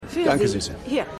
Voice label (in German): Barfrau